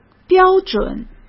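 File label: "标准", Mandarin Chinese; hsk; chinese-flashcards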